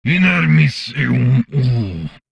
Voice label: humanoid male; Stellaris